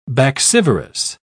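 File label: "baccivorous", British English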